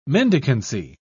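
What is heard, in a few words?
"mendicancy", British English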